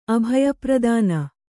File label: "ಅಭಯಪ್ರದಾನ", Kannada